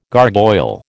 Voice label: "gargoyle", American